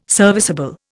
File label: "serviceable", Indian